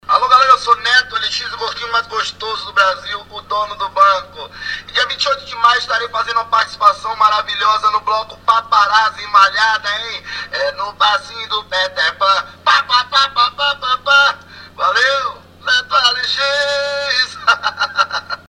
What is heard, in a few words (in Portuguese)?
Voz